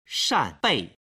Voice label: "shan bei", Chinese